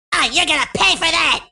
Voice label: "pay", Furby